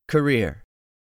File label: "CAREER", english; 3000 words